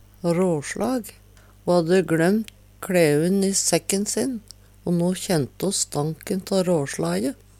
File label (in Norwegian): raslag, Numedalsmål